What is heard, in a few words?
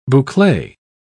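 "boucle", British English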